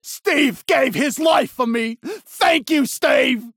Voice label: Kill Cry